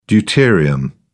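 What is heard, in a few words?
"deuterium", British English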